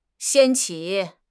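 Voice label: "掀起", Mandarin Chinese; HSK6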